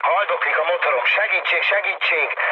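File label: Hungarian damaged engine mood med, speech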